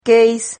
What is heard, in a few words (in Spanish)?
"queis", Silabas